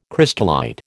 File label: "crystallite", American